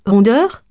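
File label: "rondeur", French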